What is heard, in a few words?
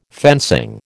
"fencing", American